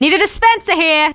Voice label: scout need dispenser, femscout